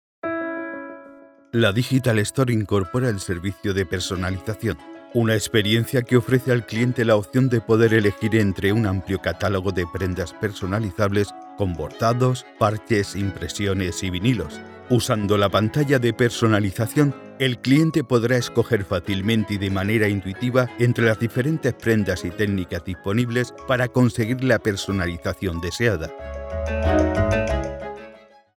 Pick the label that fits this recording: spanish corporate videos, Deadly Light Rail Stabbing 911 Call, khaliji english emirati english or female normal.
spanish corporate videos